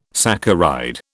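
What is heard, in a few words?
"saccharide", British English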